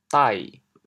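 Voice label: "dai3", Teochew, chaoyang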